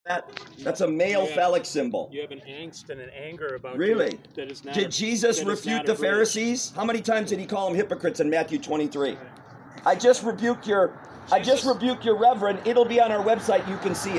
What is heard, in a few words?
preaching outside lutheran church